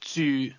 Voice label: "live", cantonese